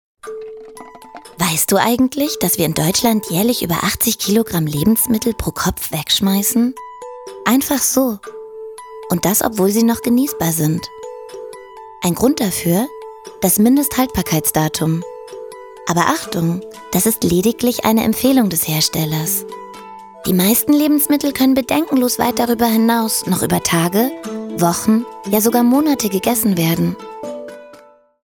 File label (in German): Sprecherin, Erklärfilm; Demo; Lebensmittel Retten